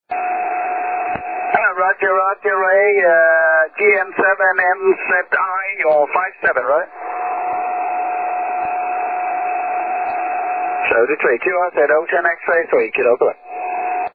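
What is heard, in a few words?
6m single sideband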